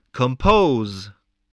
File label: "compose", english